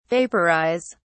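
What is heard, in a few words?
"vaporise", British English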